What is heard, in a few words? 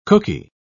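"cooky", British English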